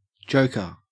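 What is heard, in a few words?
"joker", Australian English; pronunciations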